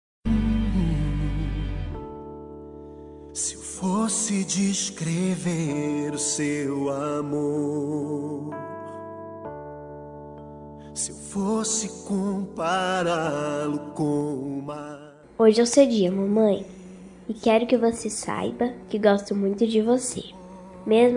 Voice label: voz infantil mãe, voz feminina infantil; dia das maes para minha mae voz feminina infantil

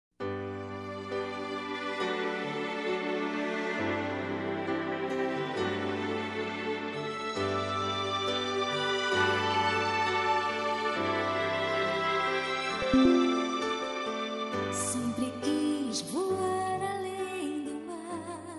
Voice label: Aniversário de Tio, Voz Masculina; Telemensagens para todas as ocasiões

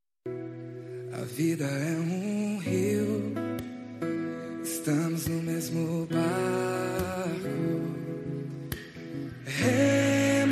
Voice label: aniver tia masc, Telemensagem Aniversário de Tia – Voz Masculina

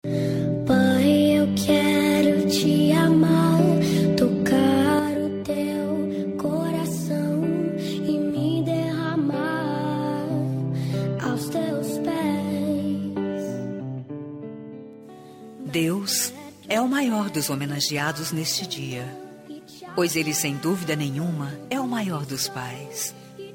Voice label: fem gospek, evangelico voz feminina; Dia dos Pais

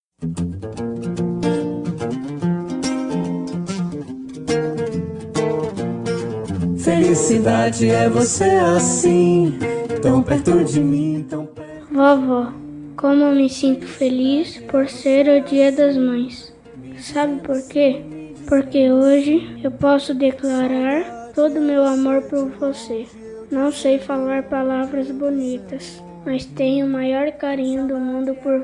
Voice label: dia das maes para avo voz masculina infantil; dm voa infantil mas

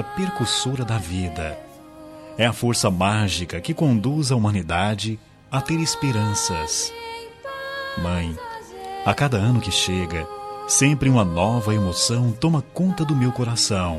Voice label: mae natal masc, natal para mae voz masculina

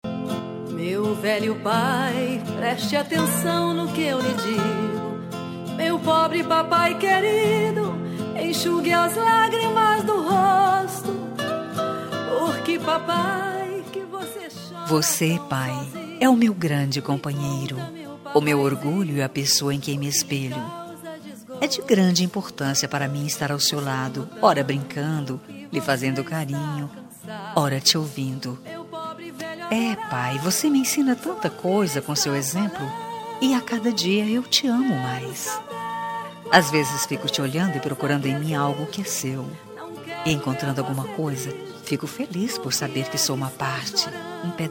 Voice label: dia dos pais feminina; Voz Feminina

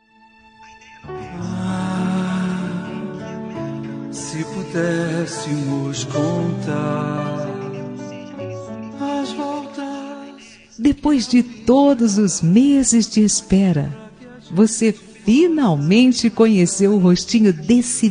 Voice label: maternidade fem, Telemensagem para Maternidade – Voz Feminina

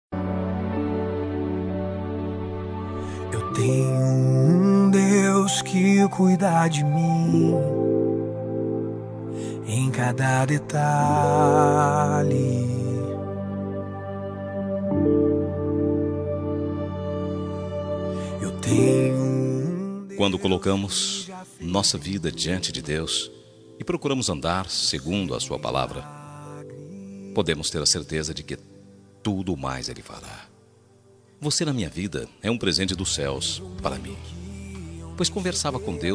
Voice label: rom gospel masc, Telemensagem Romântica Gospel – Voz Masculina

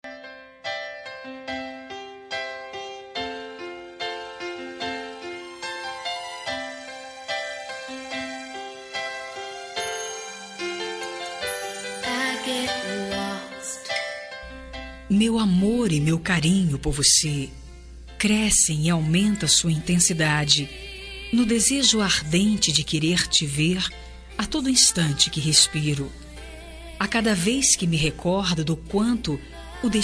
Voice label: anive distante fem, Aniversário Romântico; Telemensagem Aniversário Romântico – Distante

